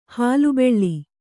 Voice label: "ಹುಲಿಬಲೆ", Kannada